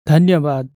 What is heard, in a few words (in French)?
"merci", népalais; langue nepalais nepali